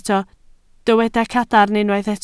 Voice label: "cadarn", Welsh

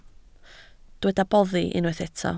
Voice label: "boddi", Welsh

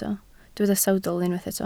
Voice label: "sawdl", Welsh

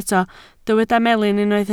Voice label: "melin", Welsh

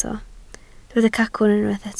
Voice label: "cacwn", Welsh

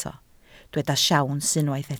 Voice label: "siawns", Welsh

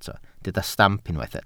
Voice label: "stamp", welsh

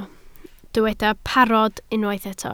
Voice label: "parod", Welsh

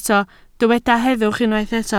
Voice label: "heddwch", Welsh

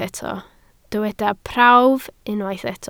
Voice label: "prawf", Welsh

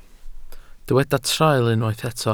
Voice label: "traul", Welsh

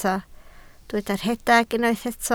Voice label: "rhedeg", Welsh

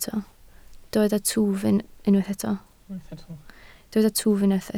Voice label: "twf", Welsh

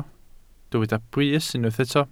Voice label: "brys", Welsh

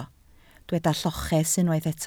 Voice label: "lloches", Welsh

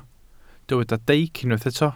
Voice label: "deic", welsh

